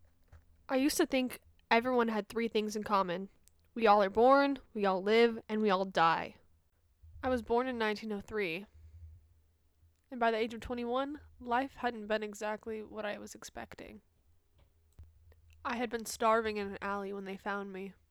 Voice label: spoken word